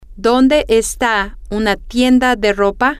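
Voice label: where is a clothing store, Spanish, female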